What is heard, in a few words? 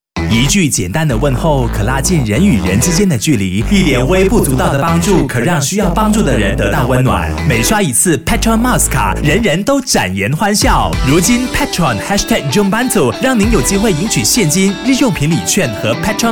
PROMO; PETRON; JOMBANTU